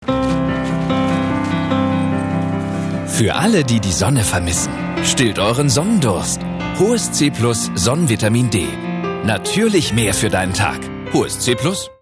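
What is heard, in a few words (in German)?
Werbung, Freundlich; Hohes C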